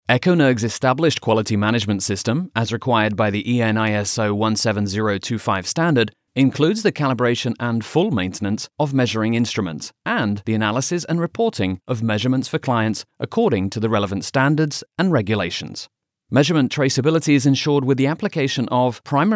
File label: business presentation, english, GB